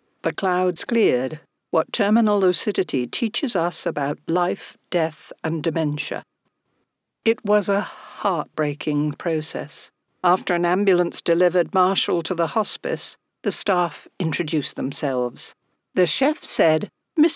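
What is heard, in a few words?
Medical Narration, British RP Warm Engaging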